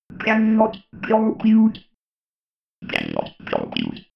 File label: robot voice cannot compute